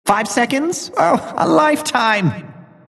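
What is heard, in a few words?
announcer count pick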